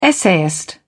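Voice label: "essayist", British English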